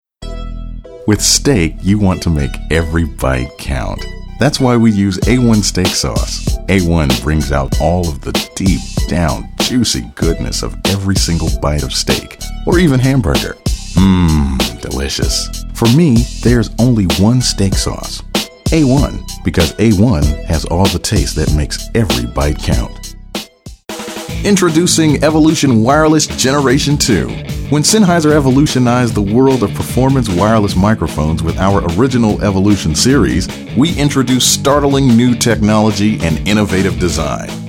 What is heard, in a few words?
Commerical